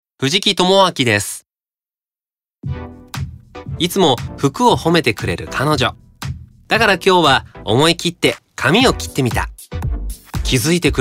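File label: commercial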